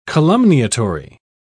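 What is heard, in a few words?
"calumniatory", British English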